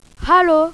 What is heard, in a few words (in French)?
"hallo", Allemagne; Berlin